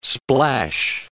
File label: "splash", English